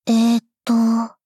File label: doubt